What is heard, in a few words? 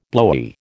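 "blowy", American English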